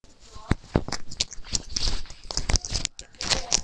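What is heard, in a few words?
"beam", spoken word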